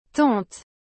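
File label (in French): "tante", French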